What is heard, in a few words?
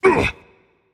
ouch rage; chaac